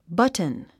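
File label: "button", English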